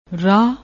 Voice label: "rah", Punjabi